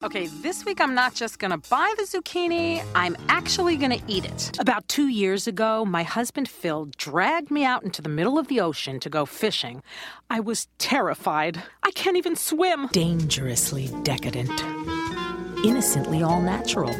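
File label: US, commercial, voice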